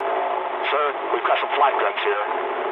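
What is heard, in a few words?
English damaged aa mood low; War Thunder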